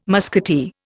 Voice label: "musketeer", Indian